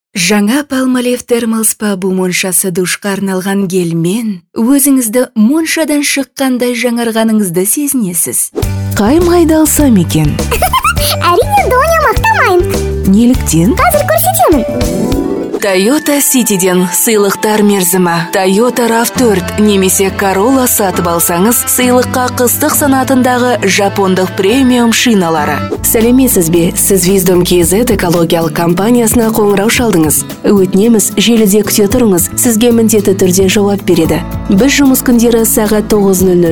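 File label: Kazakh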